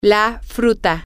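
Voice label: the fruit, spanish, female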